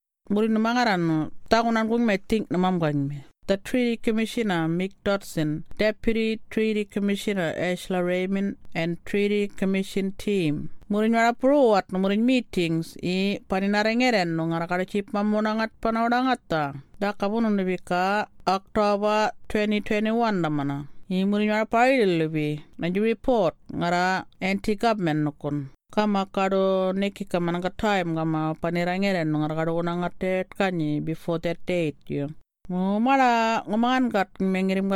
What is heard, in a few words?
Murrinh Patha